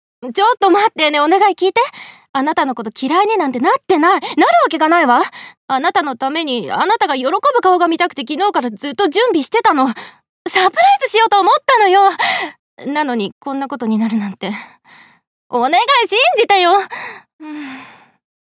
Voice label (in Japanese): 必死に弁明